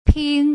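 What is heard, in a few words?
"ping", Mandarin Chinese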